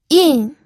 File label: "yin4", pinyin